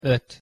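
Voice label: "ot", Hungarian pronunciation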